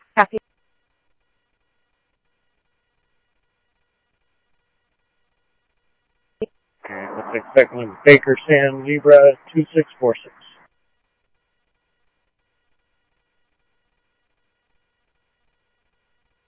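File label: Mesa Simulcast Police Department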